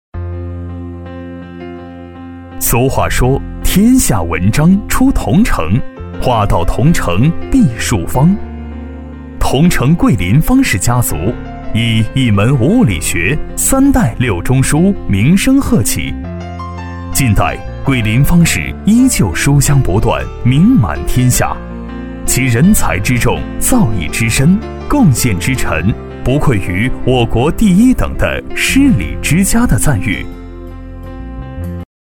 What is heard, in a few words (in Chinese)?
专题 博物馆解说; 方氏名人馆